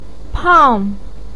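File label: "pang4", Mandarin pinyin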